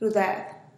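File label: "ruded", Teotitlán del Valle Zapotec; give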